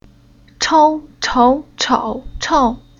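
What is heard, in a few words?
"Chou", Chinese syllable; Уроки фонетического блока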